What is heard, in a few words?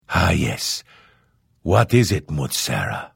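Hello, Vo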